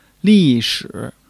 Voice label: "li4 shi3", Chinese